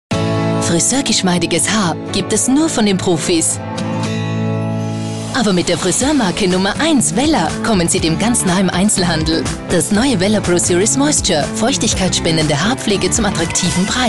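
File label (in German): Austrian Werbung Freundlich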